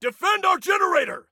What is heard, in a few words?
Defend Generator, Soldier Male